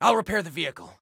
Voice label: Self Repair Vehicle, Soldier Male